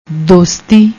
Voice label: "dosti", Punjabi